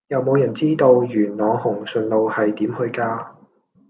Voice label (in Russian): Голоса, Гонконгский